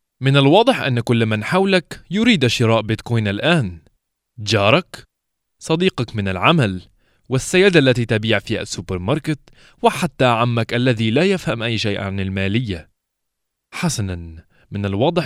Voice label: Arapça

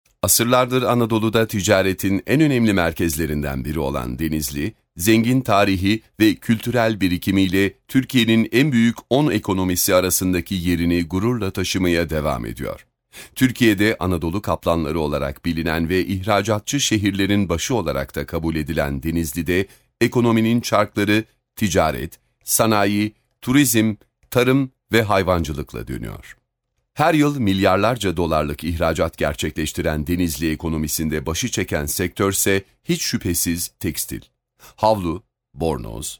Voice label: TANITIM METNİ SESLENDİRMESİ

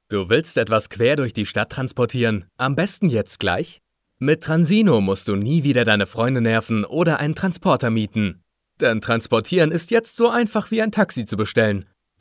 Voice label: Almanca

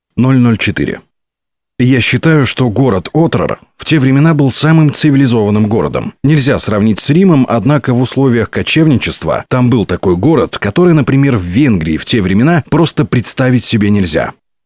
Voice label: Rusça

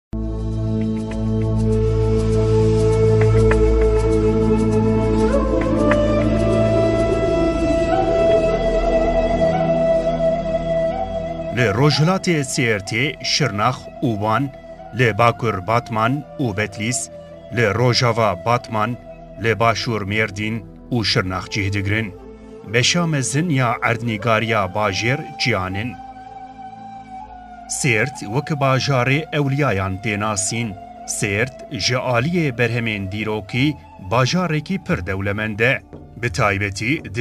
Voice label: KÜRTÇE

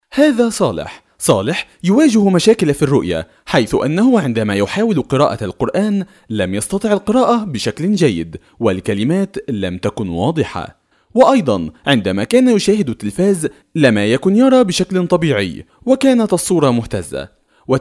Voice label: Arapça

